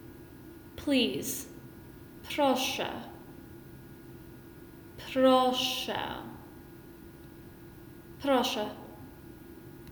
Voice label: Pol Please